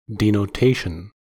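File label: "denotation", American English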